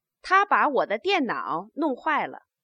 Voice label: stative, Chinese